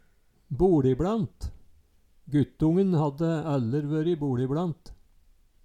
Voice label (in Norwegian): "boLibLant", Numedalsmål; Guttongen hadde æller vøre boLibLant